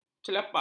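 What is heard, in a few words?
"celapak", Malay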